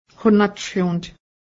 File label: male faithkeeper, Cayuga; honádri̱hǫ:t